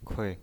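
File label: "kui", pinyin, Mandarin Chinese, neutral tone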